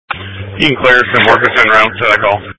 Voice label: EMS Dispatch